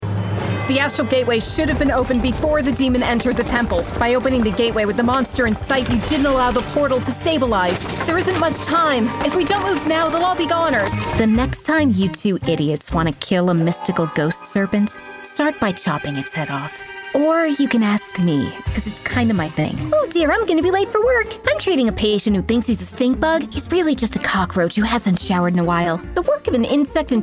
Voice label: Animation, Women

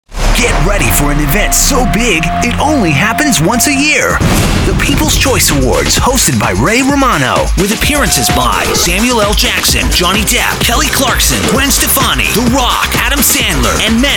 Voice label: Men Promo Trailers

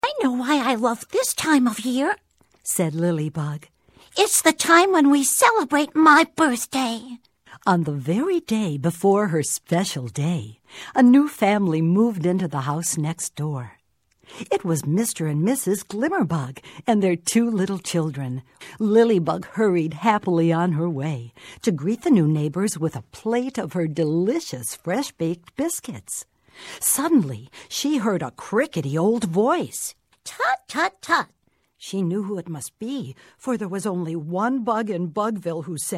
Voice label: Women Audio Book

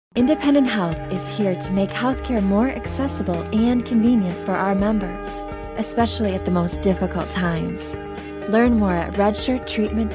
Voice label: Commercial, Women

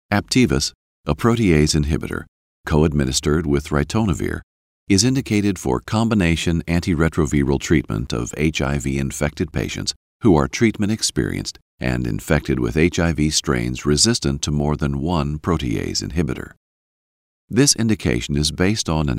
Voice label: Narrations Medical

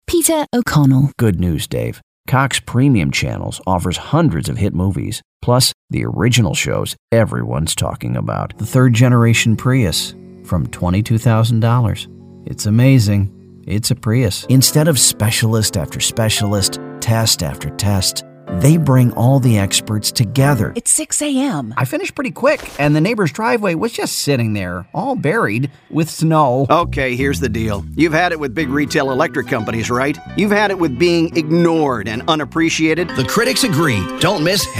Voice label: Men Commercial

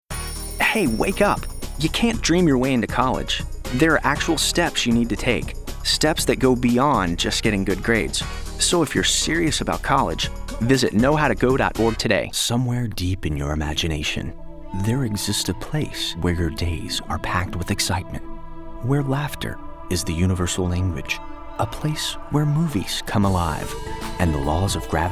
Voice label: Men Commercial

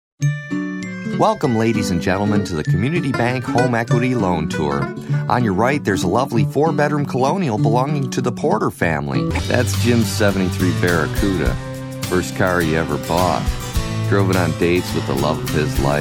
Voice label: Men Commercial

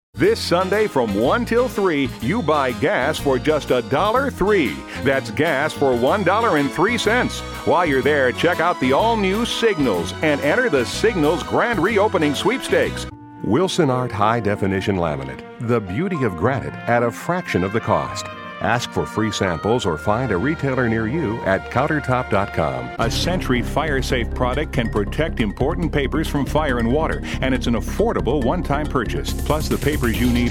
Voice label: Men Commercial

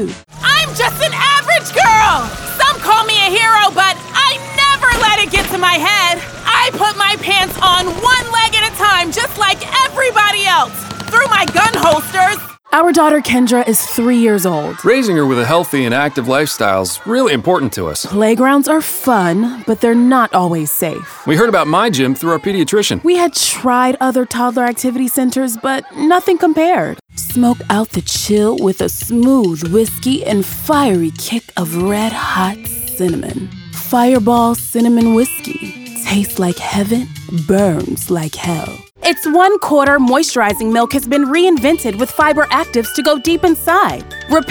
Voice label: Women Commercial

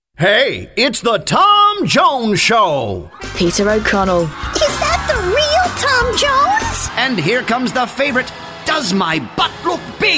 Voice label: Character, Voicematch Soundalikes

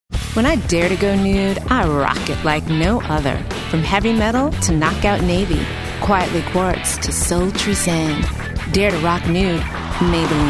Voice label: Women Commercial

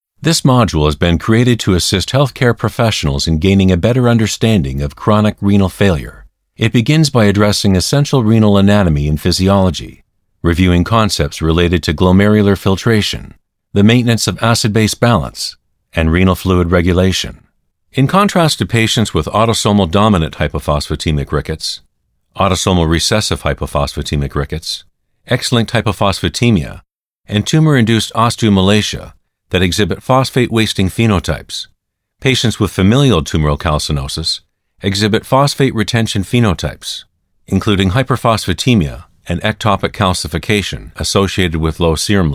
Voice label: Medical, Narrations Medical

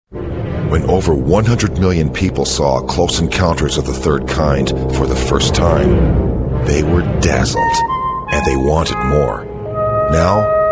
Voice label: Men Promo Trailers

